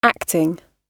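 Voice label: "acting", British English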